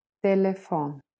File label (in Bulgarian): telefon; practice bulgarian